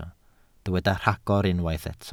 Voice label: "rhagor", Welsh